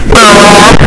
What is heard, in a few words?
UWU HD LOUD